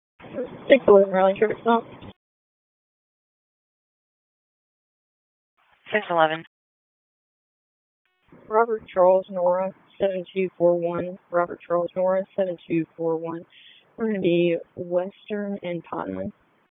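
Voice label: Police District Dispatch, p25; Raleigh Police Southwest District Disp; wake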